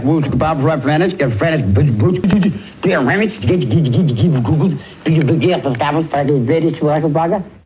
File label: wootz ta bob bob grannitz cuz grannitz ta woot ta woot, Voice gibbrish